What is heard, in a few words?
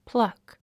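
"pluck", English; plʌ́k プラク; 意味 摘み取る 引き抜く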